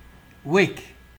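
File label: "wake", Nigerian Pidgin, male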